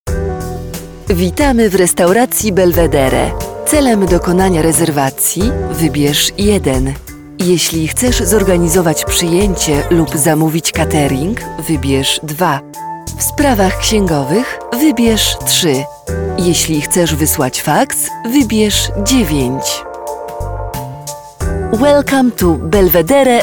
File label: IVR